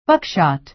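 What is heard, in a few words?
"buckshot", American English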